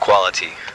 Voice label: brithish bloke

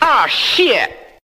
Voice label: aw shit cartoon old man; Voice; expletives

